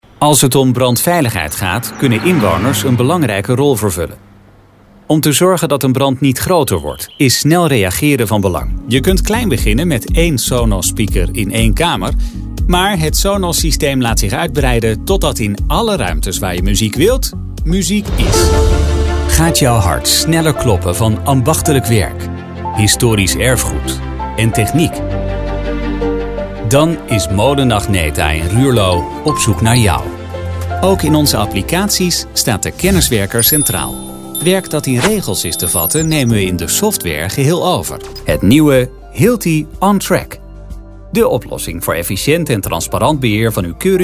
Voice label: male Dutch institutional, commercial, recited, smooth; 30-50